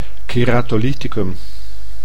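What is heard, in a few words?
"keratolyticum", Dutch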